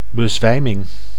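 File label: "bezwijming", Dutch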